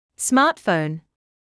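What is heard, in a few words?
"smartphone", English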